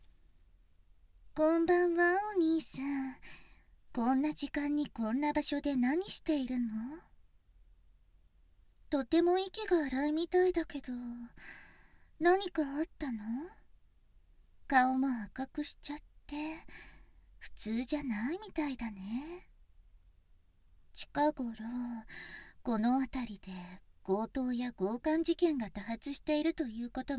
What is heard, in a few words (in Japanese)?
背後からささやき責め